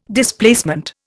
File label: "Displacement", Indian